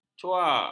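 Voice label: "cua", Malay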